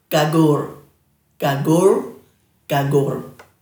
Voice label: "gagurr", Dhurga; dulagaal